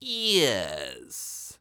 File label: "Yes", Human Male